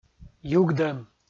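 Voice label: "югдӧм", Komi, male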